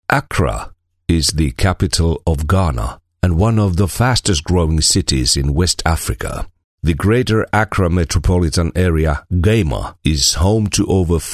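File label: Finnish, male, English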